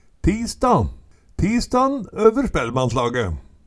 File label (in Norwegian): tisda, Numedalsmål